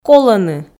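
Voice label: "колӧны", Komi, female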